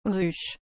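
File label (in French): "ruche", French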